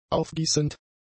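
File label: "aufgießend", German; adjectives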